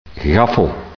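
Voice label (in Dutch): "gaffel", dialect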